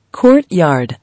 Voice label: "Courtyard", English